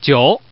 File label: Man